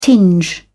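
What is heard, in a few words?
"tinge", British English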